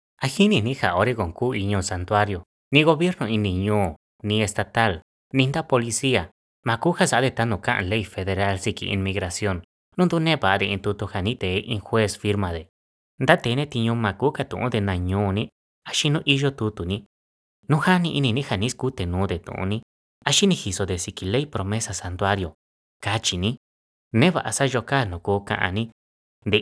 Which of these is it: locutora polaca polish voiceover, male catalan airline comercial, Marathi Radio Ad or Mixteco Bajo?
Mixteco Bajo